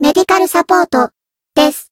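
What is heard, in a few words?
Voice heal, Japanese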